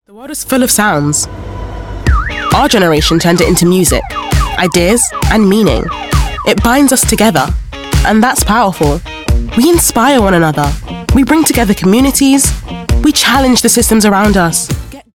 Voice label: Ad; Spotify